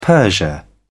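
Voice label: "persia", British English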